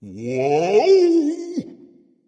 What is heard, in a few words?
fear dragon; fafnir